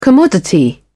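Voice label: "commodity", English, British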